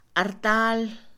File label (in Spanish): altar, Mixe, Mujer; Religión; INALI